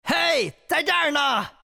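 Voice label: attack, Chinese; dota2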